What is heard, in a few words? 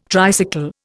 "tricycle", Indian